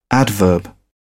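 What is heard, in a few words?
"adverb", British English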